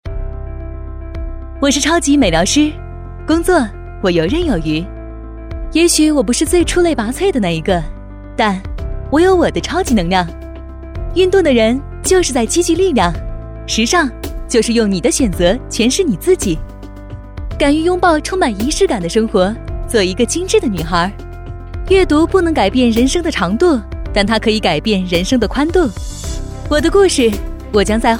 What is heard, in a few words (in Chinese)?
女 广告; 美容大会